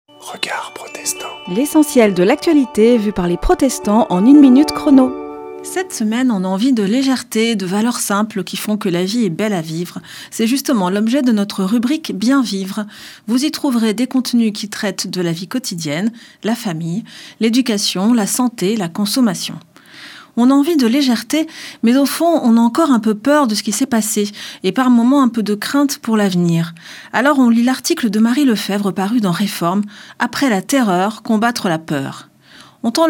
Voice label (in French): Spot radio; Regards protestants